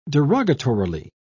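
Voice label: "derogatorily", British English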